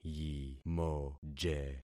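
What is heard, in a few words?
"yimoje", concatenated syllables; Affixing experiment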